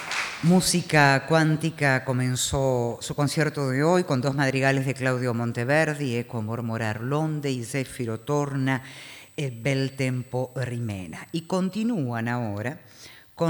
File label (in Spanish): locutora